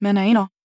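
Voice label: question short, voice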